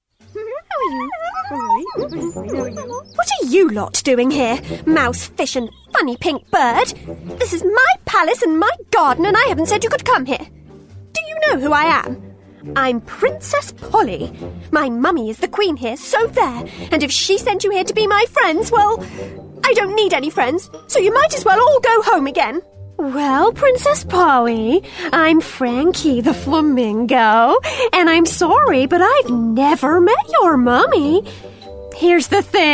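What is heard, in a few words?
Animation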